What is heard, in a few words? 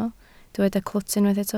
"clwt", Welsh